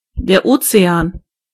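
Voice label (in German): "ocean", German; gcompris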